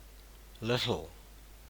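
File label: "little", New Zealand English; pronunciations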